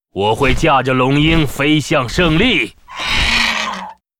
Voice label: pissed